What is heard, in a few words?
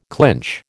"clinch", American